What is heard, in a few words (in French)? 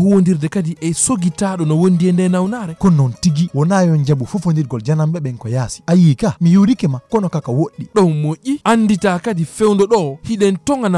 SPOT EBOLA 2 HOMME POULAR